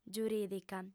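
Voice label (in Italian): "giuridica", lingua sarda, female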